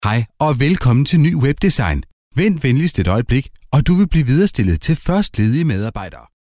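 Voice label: Danish, male, IVR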